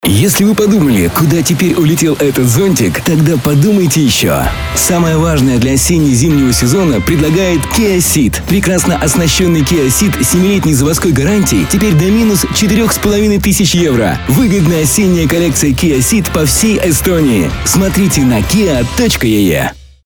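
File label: Advertisement men Russian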